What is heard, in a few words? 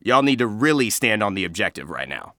Announcer Match Role Attack; Evan